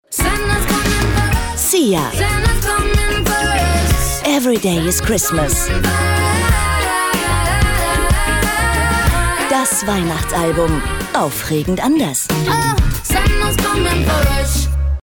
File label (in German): Fremdtake Freundlich